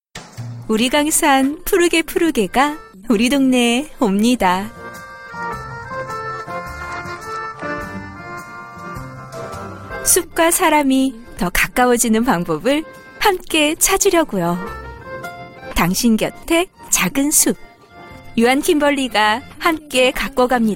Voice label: F, KO KO